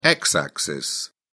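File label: "x-axis", English, British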